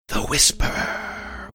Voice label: whispering